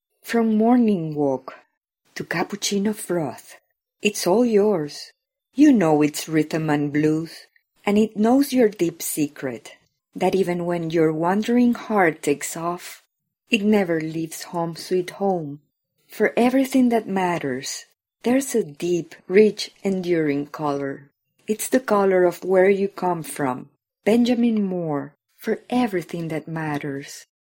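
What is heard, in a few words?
Spanish English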